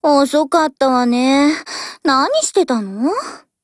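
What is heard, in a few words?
Japanese, Voice; GF Alarm; PTRD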